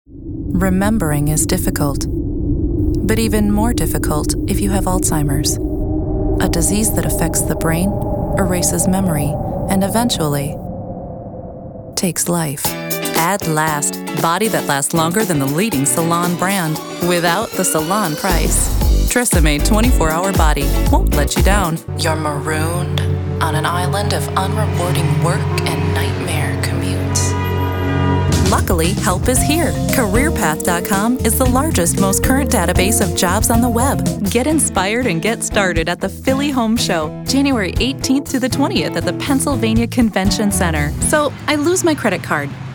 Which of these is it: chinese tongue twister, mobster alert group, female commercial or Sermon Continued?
female commercial